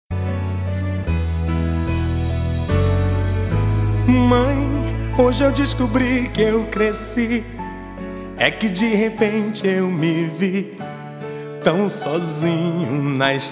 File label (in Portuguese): DIA DAS MAES VERSO DE CARINHO F, telemensagem dia das maes diversos